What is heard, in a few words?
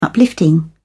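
"uplifting", British English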